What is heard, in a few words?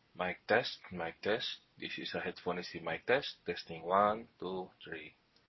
Quiet; Jabra Talk 45